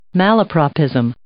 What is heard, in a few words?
"malapropism", English